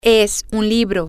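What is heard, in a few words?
it is a book, spanish, female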